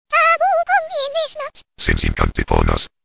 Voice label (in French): ta bu combien 2 shnaps espagnol